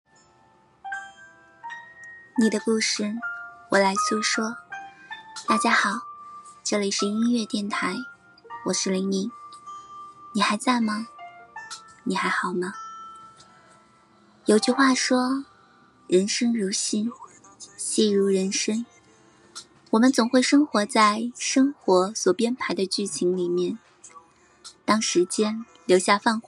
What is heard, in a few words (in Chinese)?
电台情感文案